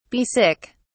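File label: "be sick", English, British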